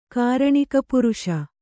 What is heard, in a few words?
"ಕಾರಣಿಕಪುರುಷ", Kannada